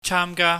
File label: "chaamga", Cantonese